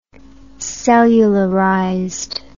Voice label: "cellularized", English